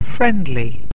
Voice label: "friendly", English